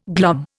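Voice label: "glomerate", Indian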